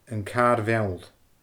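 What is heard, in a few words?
"An Carr Mheall", Scottish Gaelic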